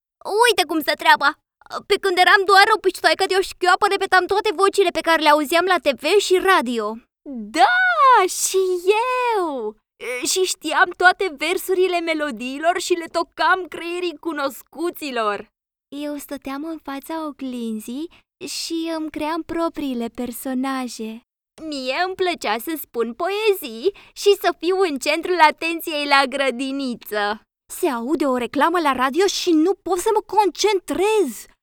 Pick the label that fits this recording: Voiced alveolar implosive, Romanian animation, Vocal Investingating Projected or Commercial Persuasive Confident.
Romanian animation